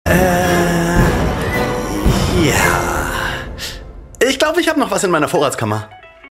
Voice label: Online-Spot, surprised, playful, funny, Commercial, German; Bundesamt Für Katastrophenschutz